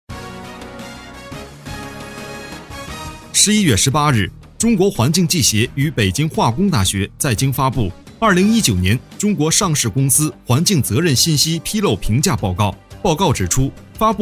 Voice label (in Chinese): 新闻